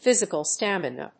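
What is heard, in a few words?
"physical stamina", English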